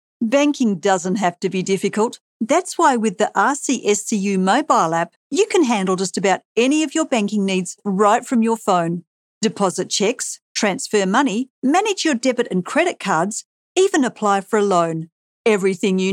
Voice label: Professional